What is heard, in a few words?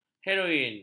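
"heroin", Malay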